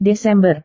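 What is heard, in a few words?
"desember", Indonesian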